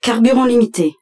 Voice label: French, Low